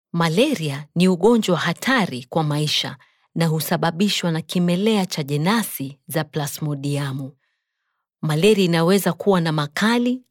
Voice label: female swahili voice over narrative